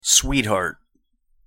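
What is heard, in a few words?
"sweetheart", English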